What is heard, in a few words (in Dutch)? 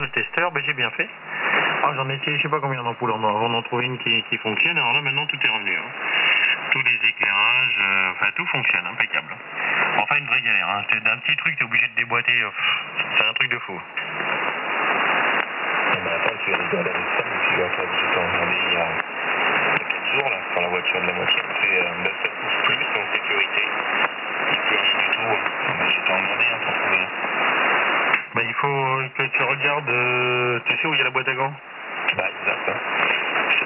LSB Franstalig onbekend, Onbekende stations HF; 4.570.00 LSB Franstalig onbekend